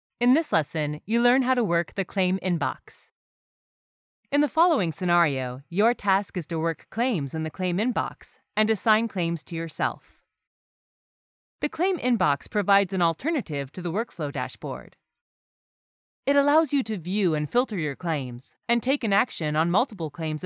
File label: female us; lektoring